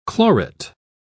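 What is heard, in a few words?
"chlorate", English, British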